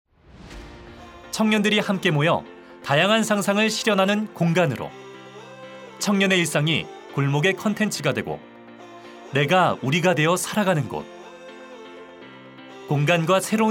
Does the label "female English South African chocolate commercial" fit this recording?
No